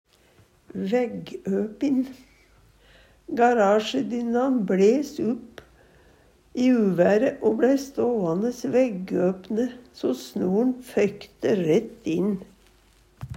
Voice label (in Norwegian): "veggopin", Numedalsmål; Garasjedynnan bLes upp i uvære o bLæi ståanes veggøpne so snor'n føykte rett inn.